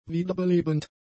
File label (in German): "wiederbelebend", German; adjectives